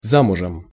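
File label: "zamuzem", Russian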